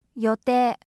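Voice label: "yotei", Japanese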